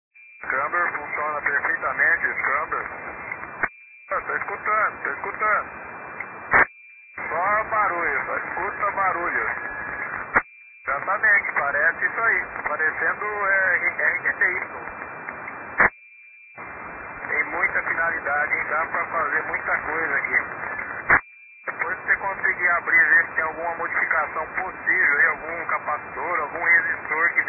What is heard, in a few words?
Voice Inversion Recovered, Satcom